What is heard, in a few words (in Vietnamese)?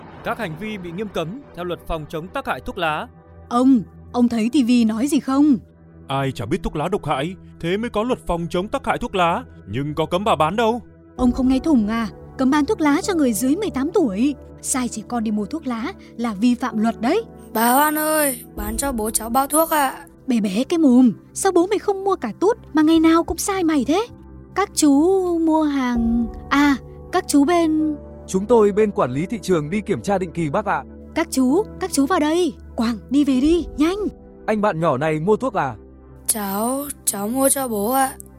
giọng bắc; cấm bán thuốc lá cho người dưới 18 tuổi; clip phat thanh ve phong chong tac hai cua thuoc la 2024